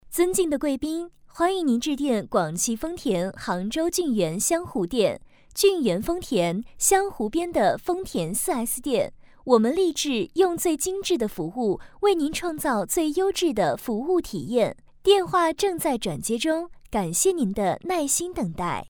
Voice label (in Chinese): B女 彩铃样音